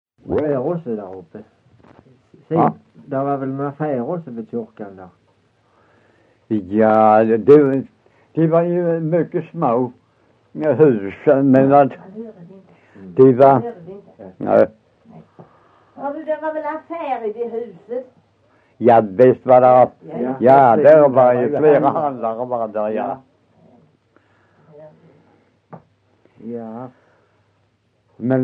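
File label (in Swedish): Össjö Skåne, dialekter, svenska sagor; Folkminnen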